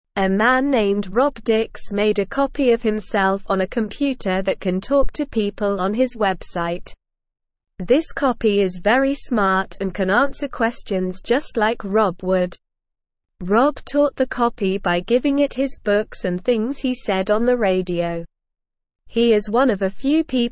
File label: English Newsroom Beginner SLOW Reading; Rob Dix Creates Smart Copy of Himself on Website